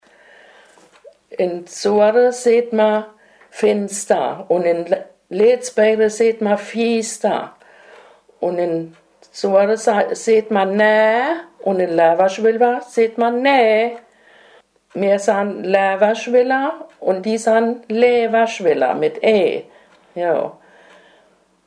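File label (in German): sohrener aussprache, Hunsrück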